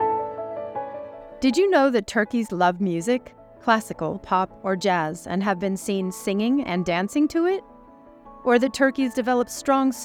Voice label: thanksvegan radio psa; peta